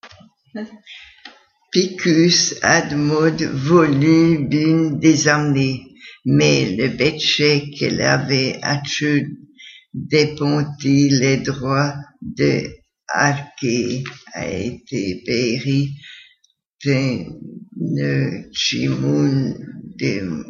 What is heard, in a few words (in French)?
patois